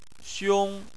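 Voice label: "xiong", Chinese, first tone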